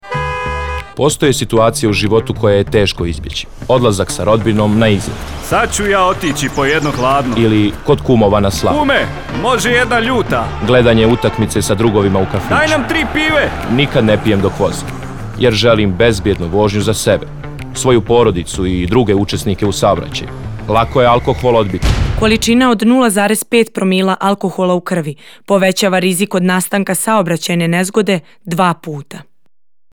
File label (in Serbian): Radio džingl; Lako je alkohol odbiti 2021